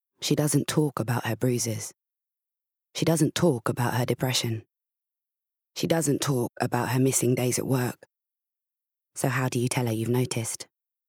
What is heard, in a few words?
commercial; AVA project